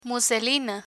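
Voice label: "muselina" Spanish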